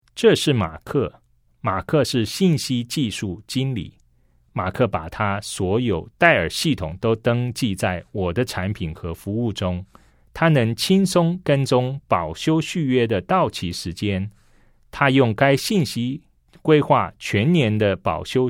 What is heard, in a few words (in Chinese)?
CHINESE